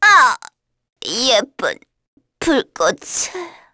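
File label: Vox Dead, Korean; Pansirone; kingsraid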